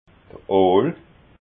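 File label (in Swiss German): "ool", Alsatian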